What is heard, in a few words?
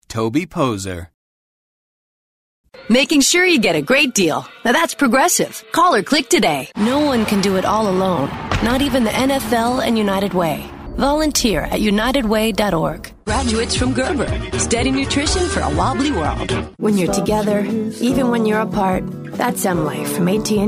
commercial; Innovative LA